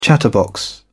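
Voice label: "chatterbox", British English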